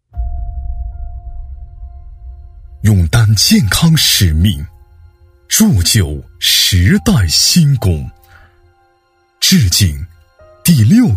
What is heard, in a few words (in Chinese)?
男 专题配音 磁性温暖; 中国医师节; 大气磁性